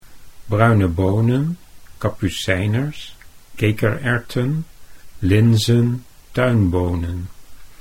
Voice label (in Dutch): "bruine bonen", Dutch